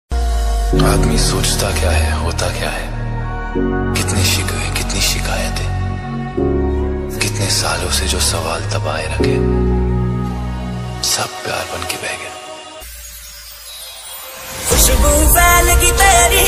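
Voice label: filmy dialogue ringtone, hindi dialogue ringtone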